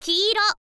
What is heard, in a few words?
"kiiro", info girl voice